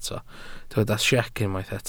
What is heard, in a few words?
"siec", Welsh